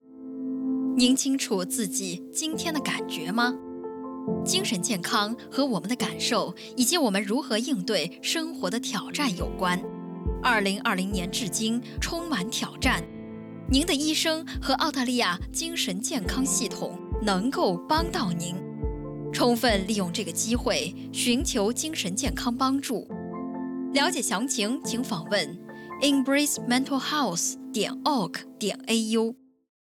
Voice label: Mental Health Awareness - Mandarin